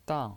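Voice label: "dang", Mandarin Chinese pinyin voice, fourth tone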